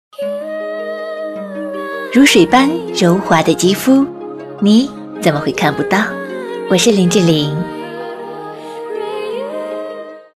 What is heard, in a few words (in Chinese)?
女 模仿 广告, 国语女声配音; 模仿林志玲广告